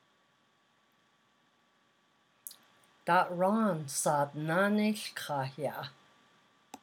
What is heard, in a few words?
navajo; Da’ Ron saad nináánéíłkaah ya’?